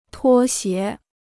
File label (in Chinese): "拖鞋", Chinese